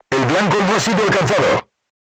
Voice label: voice message armor not pierce, crew tanks spanish